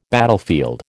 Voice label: "battlefield", American

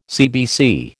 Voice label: "CBC", American

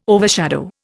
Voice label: "overshadow", Indian

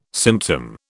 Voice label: "symptom", British

